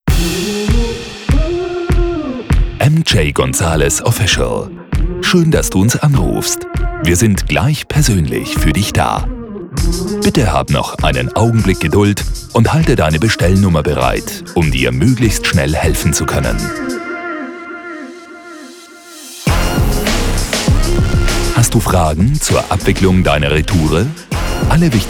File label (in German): Telefonansage waehrend den Geschaeftszeiten